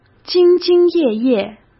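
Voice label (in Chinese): "兢兢业业", Mandarin Chinese; hsk; chinese-flashcards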